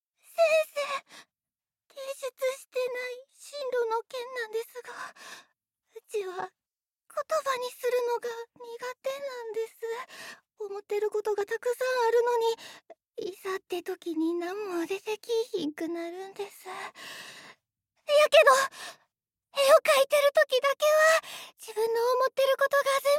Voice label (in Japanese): 気弱な女子高生